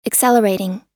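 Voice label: "accelerating", US English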